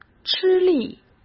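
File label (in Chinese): "吃力", Mandarin Chinese; hsk; chinese flashcards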